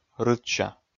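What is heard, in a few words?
"рытся", Komi, male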